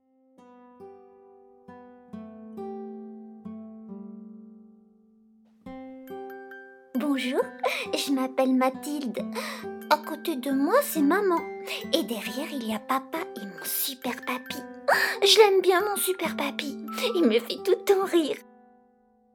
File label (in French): Voix petite fille; Mignon, tendresse; VOIX NARRATION & CARTOONS